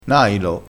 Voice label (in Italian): "nailo", crespinese